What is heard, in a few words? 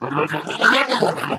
ballbot angry rant